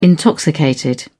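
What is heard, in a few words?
"intoxicated", British English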